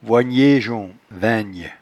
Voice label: "voignejon", patois jura

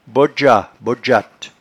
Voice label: "bodgeat", patois jura

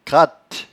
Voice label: "cratte", patois jura

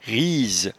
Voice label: "rise", patois jura

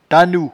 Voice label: "tannou", patois jura

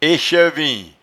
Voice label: "echevin echevin", patois jura

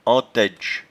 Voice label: "entaidge", patois jura

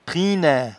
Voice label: "trinant", patois jura

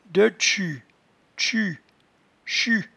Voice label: "detchus", patois jura

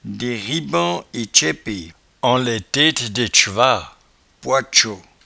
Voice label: "desribansautchaipe", patois jura

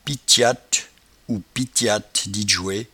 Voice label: "pitchatte", patois jura

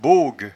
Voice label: "bogue", patois jura

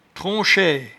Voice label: "tronchaie", patois jura

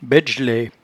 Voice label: "baidgellaie", patois jura

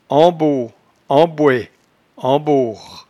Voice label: "amboamboe", patois jura

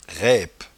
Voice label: "raipe", patois jura

